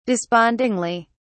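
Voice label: "despondingly", British English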